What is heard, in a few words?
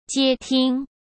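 "接听", Mandarin Chinese; chinese flashcards; hsk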